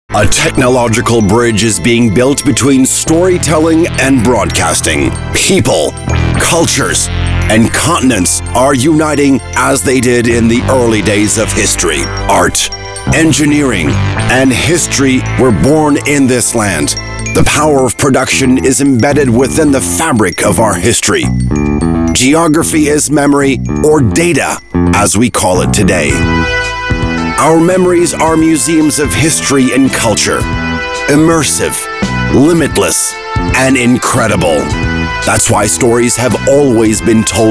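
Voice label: English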